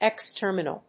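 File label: "X terminal", English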